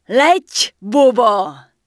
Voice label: Night Elf Female Pissed; wowpreklad; czwow; NightElfVocalFemale